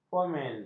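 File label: "fomen", Malay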